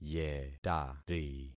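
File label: "yetari", concatenated syllables; Affixing experiment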